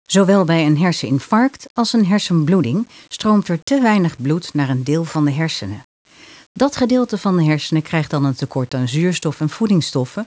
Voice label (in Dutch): Uitleganimatie hersenletsel